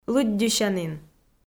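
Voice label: "лыддьысянін" Komi, female; kpv audio dict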